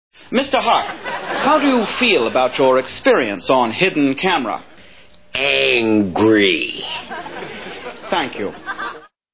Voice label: angry